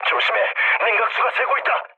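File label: Korean damaged water mood low; speech; War Thunder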